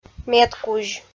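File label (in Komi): "медкузь", Komi-Zyrian, female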